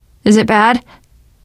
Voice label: getting revived, teen girl; voice; source engine; left 4 dead 2; survivor